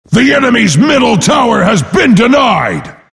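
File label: announcer tower deny enemy mid; Dota 2; axe announcer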